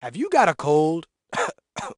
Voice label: Have You Gotta Cold (cough, cough); gameplay failed